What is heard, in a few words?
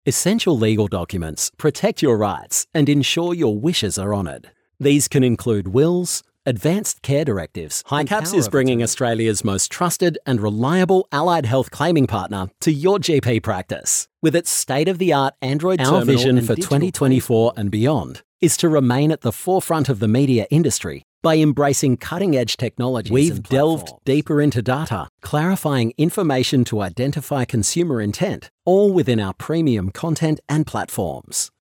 Narration